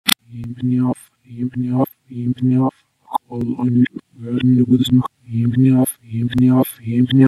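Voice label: Reversed speech 1 - Produced by recording myself saying 'find me find me find me, come to the window but do not look' and reversed distorted in premier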